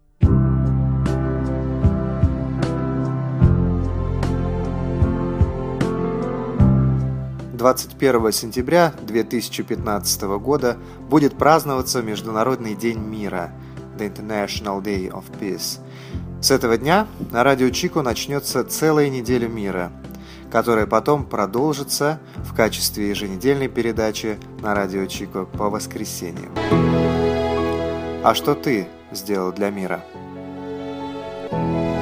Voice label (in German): Weltfriedenswoche Jingle Russisch